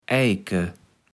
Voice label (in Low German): "Eike", kaevels platt